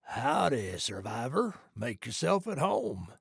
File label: trader male like greeting